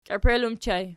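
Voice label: "kiepel" ergative plural, Archi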